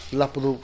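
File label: "lapdubg", louder, Teotitlán del Valle Zapotec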